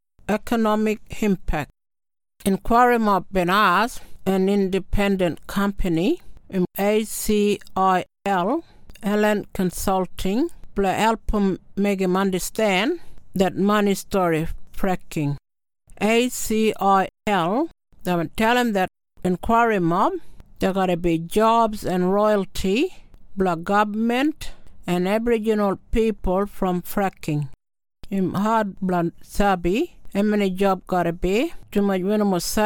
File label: Kriol